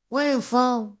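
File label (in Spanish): Voice Acting es F, Spanish, female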